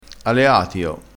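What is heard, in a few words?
"aleatio", Italian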